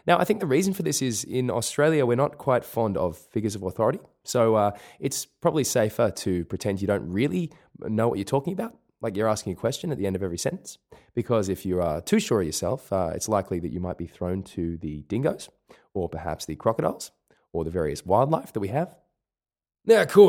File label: Australian Accent